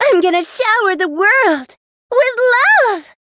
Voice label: variable chant; pom